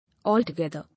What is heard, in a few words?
"Altogether", English